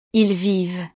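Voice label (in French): "ils vivent", French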